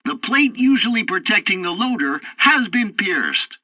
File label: tutorial tank arcade first target loader hit, english; War Thunder